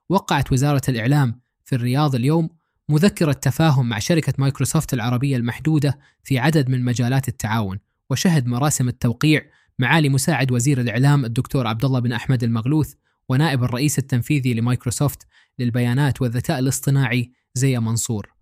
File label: arabic